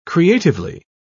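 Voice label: "creatively", English, British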